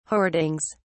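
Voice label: "hoardings", British English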